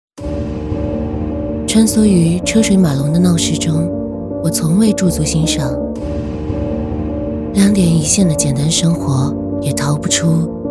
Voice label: 短视频 走心 文艺

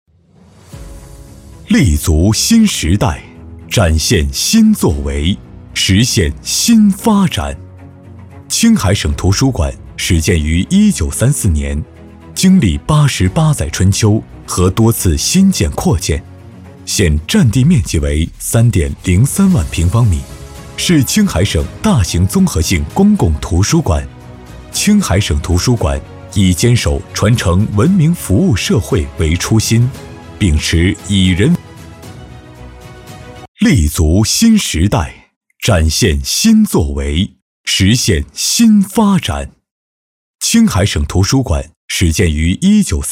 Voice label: 企业宣传

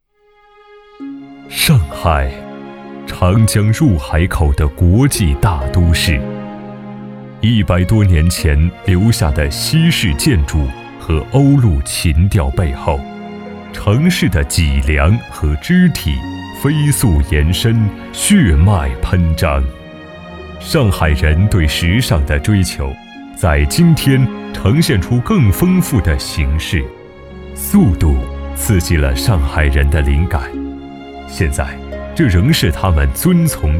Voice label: 上海 舒缓专题

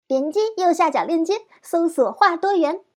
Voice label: 抖音带货

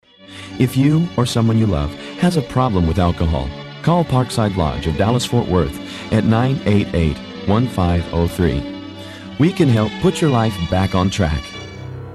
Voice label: 自然亲切戒酒中心广告

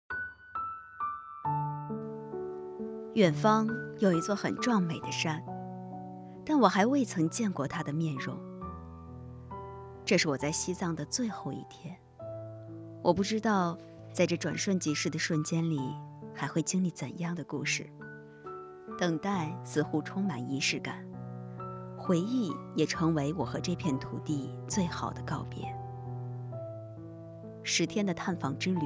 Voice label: 游记情感美文

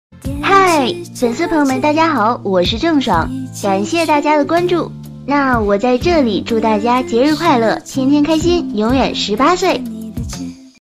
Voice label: 明星模仿; 郑爽

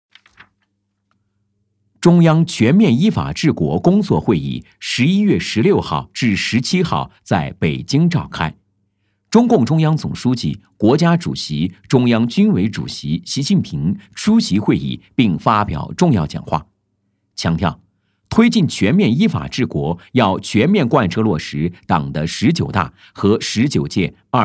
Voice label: 新闻

